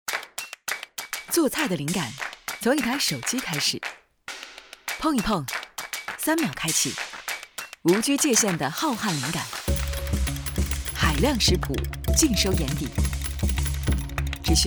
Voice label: 女 广告 家居家电 轻快; 有态度美的

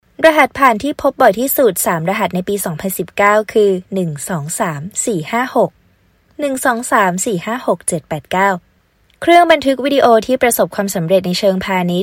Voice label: 泰语女, 外语; 小语种

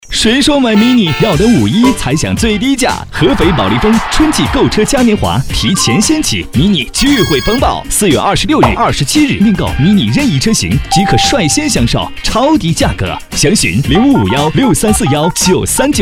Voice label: 男国 广告 汽车 活力; mini汽车广告

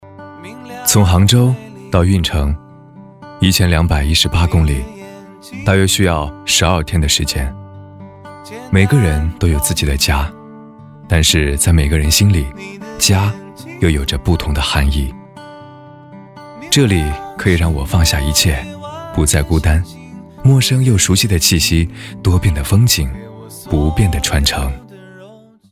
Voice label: 男国 其他 微电影 内心独白

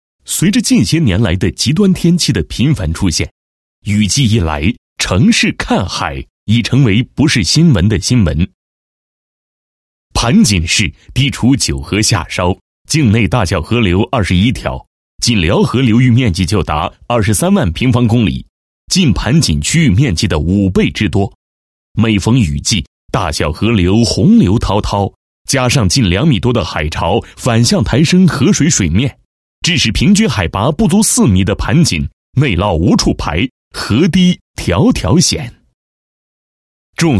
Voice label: 专题, 汇报, 海绵城市建设汇报, 沉稳; 盘锦市海绵城市建设汇报

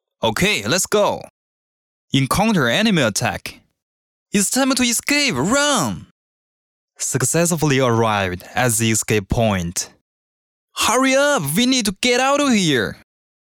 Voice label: 男英 外语 国内英语 游戏

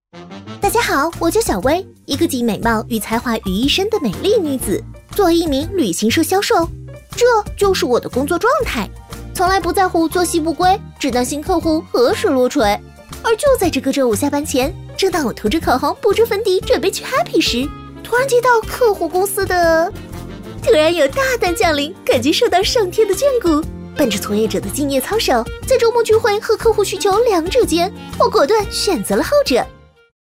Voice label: 女 宣传片 病毒; 微叮助手

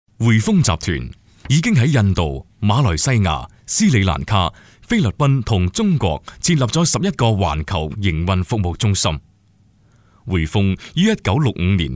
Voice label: 男粤 专题 银行 成熟; 粤语; 汇丰银行专题部分配音

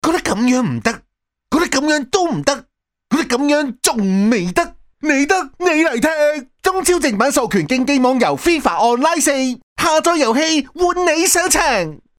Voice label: 男粤 动画 角色 精灵活泼, 粤语

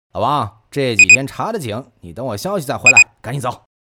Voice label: 动画 角色 毒贩扮演角色, 男

